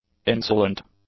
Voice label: "insolent", English; adjectives